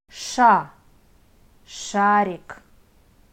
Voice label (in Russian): "ш", Russian